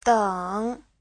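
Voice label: "děng", Mandarin Chinese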